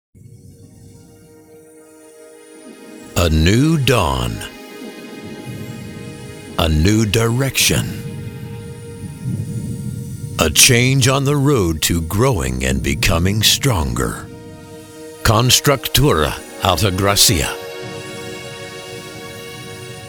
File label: Movie Trailer